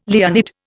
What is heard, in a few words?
"Leonid", Indian